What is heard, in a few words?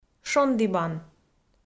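"шондібан", Komi, female; kpv audio dict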